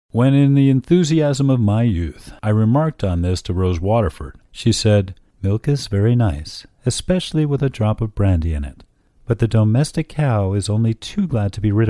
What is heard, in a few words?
Audio Book